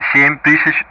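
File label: Speech Sound Russian; DCS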